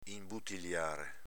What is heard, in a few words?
"inbutiliare", dialetto veneto